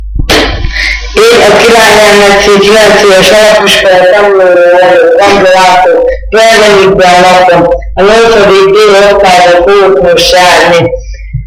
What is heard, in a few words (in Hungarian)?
nyelvjaras gyujtesek